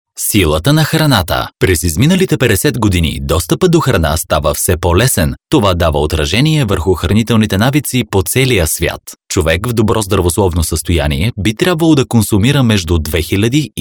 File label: bulgarian voiceover locutor bulgaro; locutor de Bulgaria